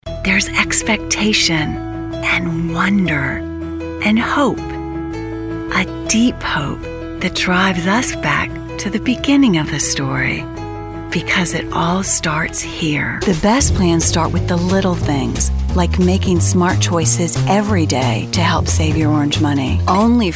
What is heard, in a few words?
Commercial